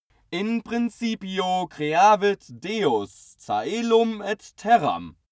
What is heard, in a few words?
male pray latin